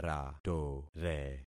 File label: "ratore", concat syllables; Affixing experiment